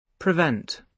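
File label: "prevent", British English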